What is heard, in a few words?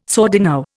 "sordino", Indian